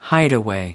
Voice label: "hideaway", us